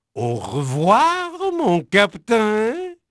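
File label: French male, voice; Pirates Of The Caribbean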